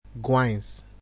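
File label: "guains", Nigerian Pidgin, male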